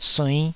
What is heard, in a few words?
"suinn5", taiwanese Syllables